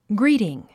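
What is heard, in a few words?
"greeting", English; gríːtiŋ グリィティン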